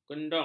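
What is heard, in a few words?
"kendong", Malay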